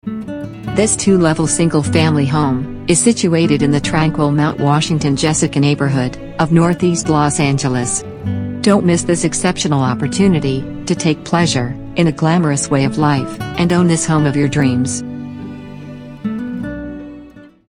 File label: website voice